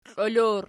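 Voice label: "kliol" plural, Archi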